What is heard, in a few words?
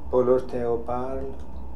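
"Polorotheoparl", pronunciations; Fourth Way; Beelzebub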